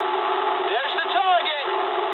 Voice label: eng near waypoint mood low, speech eng